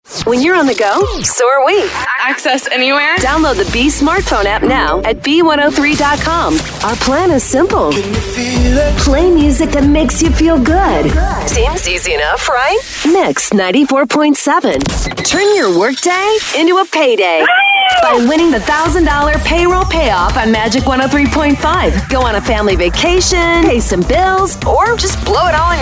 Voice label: radio imaging women ac